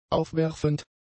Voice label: "aufwerfend", German; adjectives